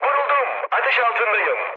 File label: on fire, mood high, speech tur; War Thunder